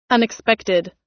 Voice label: "unexpected", us